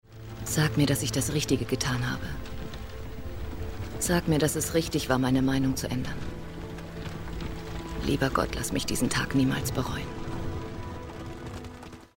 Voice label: warm; miss austen regrets

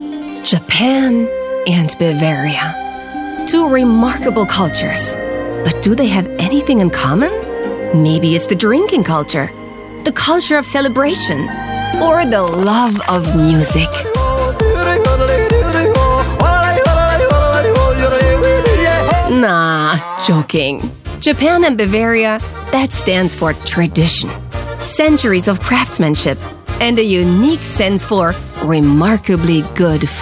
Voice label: Commercial (Werbung), English US; Ramenfaktur Awardfilm

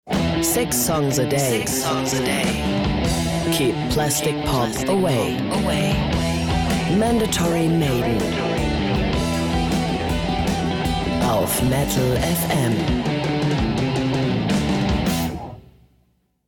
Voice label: Englisch Drop In; LOFT VOICES

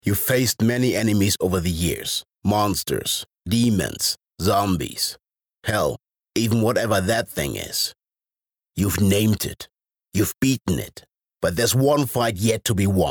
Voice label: Cancer Englisch

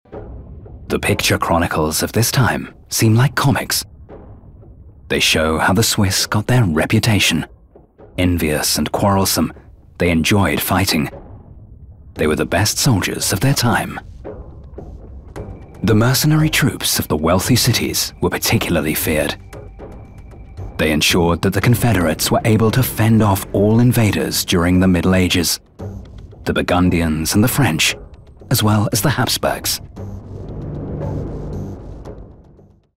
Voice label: Documentary; Switzerland from Above

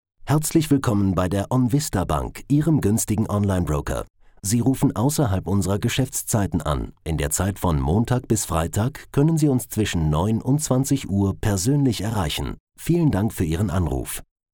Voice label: ger mailbox

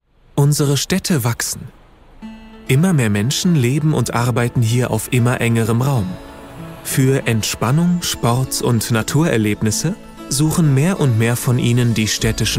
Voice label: doku calm approachable voiceovers; natuerlichhamburg

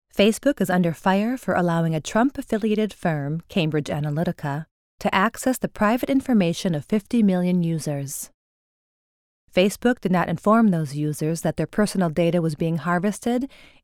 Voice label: US Doku

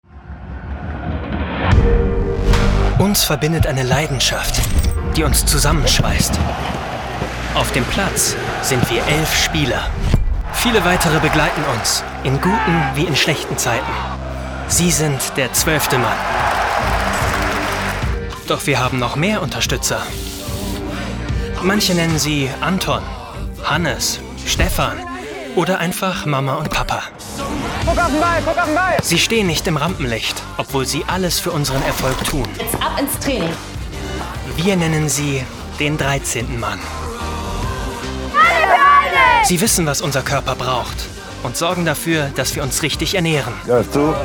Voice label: Commercial Off; Torhunger; REWE; Der 13. Mann; LOFT VOICES

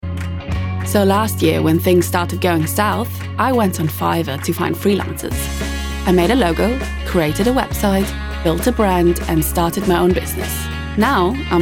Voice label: English

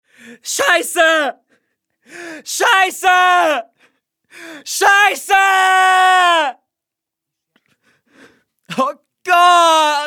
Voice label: Scene, German

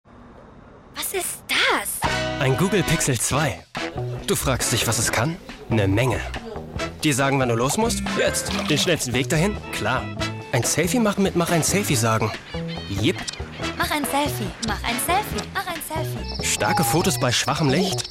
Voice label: COMMERCIAL; Google Pixel 2

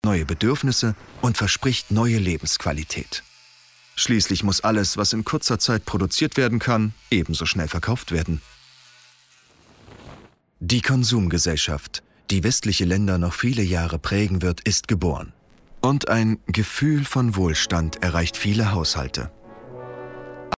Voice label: Audio Guide Museum; LOFT VOICES

